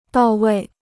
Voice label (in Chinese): "到位", Chinese